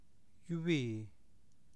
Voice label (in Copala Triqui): "yuvii̱", Copala Triqui